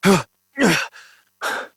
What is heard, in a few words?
Horse Mount Adult, Dialog; Duviri